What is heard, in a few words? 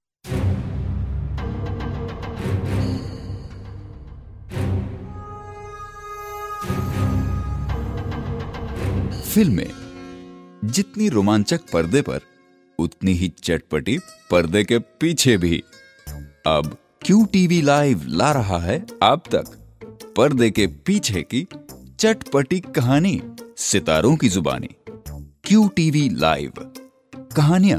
Hindi scratch for mobile offer, male voice; mobile co offer